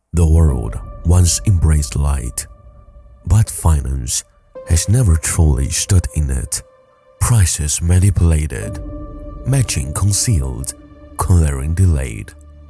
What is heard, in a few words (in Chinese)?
男 英文 宣传片; 拉神公链·宣传片